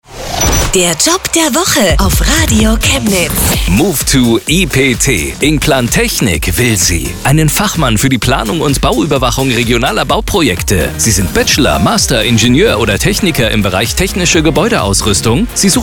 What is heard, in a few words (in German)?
Radiospot